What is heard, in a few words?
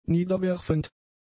"niederwerfend", German; adjectives